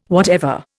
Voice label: "whatever", Indian